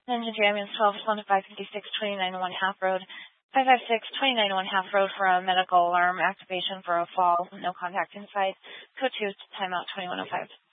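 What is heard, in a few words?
Fire Dispatch, Scanner audio recording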